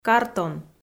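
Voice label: "картон", Komi, female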